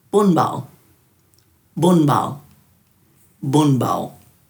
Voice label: "bunbal", Dhurga